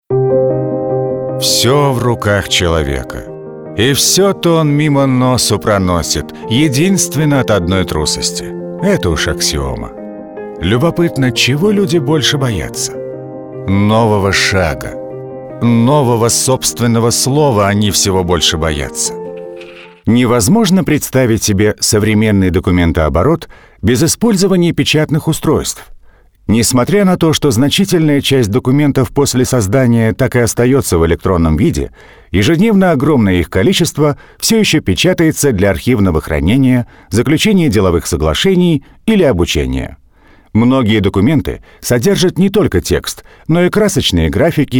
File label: diktor